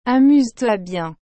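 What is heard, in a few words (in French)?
"Amuse toi bien", French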